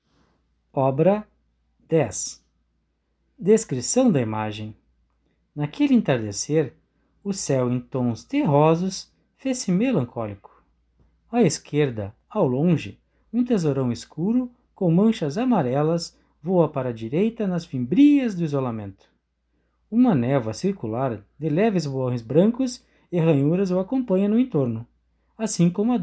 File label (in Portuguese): audiodescricao; Galeria virtual